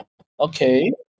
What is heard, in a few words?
"okay", Malaysian